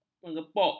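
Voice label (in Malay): "mengepok", Malay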